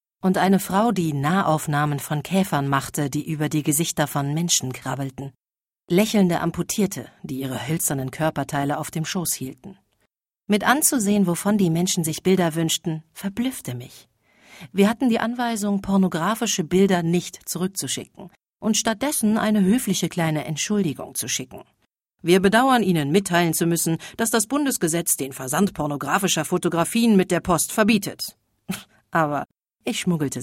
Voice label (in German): Hörbuch